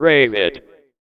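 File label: vocal male, Dance Vocals, spoken words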